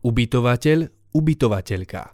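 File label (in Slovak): "ubytovatel ubytovatelka", Slovak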